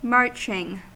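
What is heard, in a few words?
"marching", US English; pronunciations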